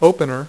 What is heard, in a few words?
"opener", dealer's speech; PowerPOKER Demo